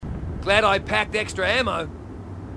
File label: marine, aussie; h2dialogue